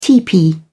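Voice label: "tepee", British English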